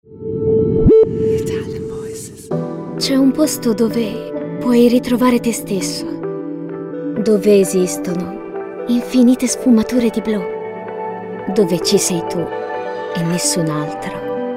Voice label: ITALIAN VOICES Natural Fresh, female voices